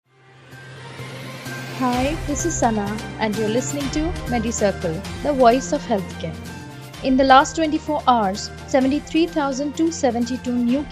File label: english news